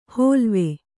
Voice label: "ಹೋಲ್ವೆ", Kannada

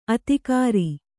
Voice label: "ಆದಿಗಿರಿ", Kannada

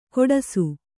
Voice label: "ಖೋಡಾಸು", Kannada

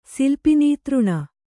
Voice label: "ಸಿಲ್ಪಿನೀತೃಣ", kannada

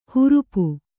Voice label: "ಹರಪೆ", kannada

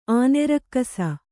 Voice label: "ಆನೆರಕ್ಕಸ", Kannada

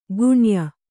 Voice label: "ಗುಣ್ಯ", Kannada

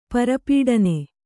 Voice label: "ಪರಿಪಠನ", Kannada

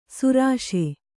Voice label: "ಸರೋಷ", Kannada

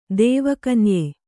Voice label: "ದೇವಕನ್ಯೆ", Kannada

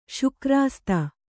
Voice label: "ಶುಕ್ರಾಸ್ತ", Kannada

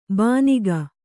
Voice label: "ಬಾನಿಗ", Kannada

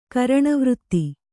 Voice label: "ಕರಣವೃತ್ತಿ", Kannada

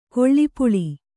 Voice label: "ಗಾಲಿಪಲ್", Kannada

